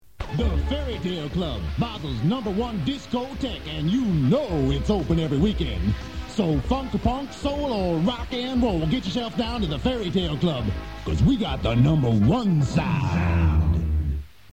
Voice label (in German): werbung radio; fairytaleclub; radio one